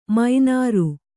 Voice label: "ಮೀನಾರ್", Kannada